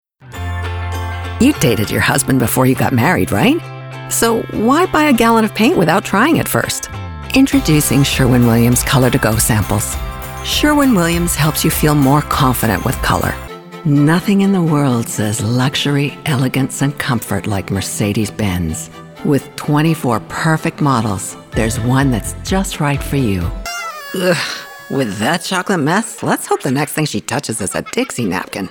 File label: Unslated Commercial